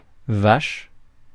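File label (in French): "vache", French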